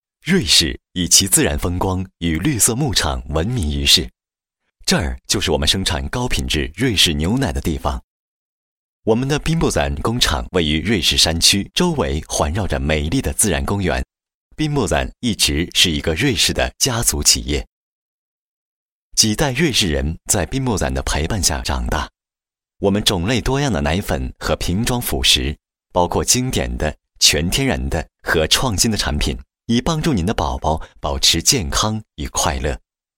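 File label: Chinese, male, corporate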